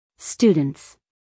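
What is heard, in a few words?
"students", American English, female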